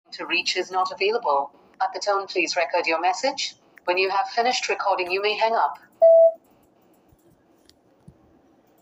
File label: call recording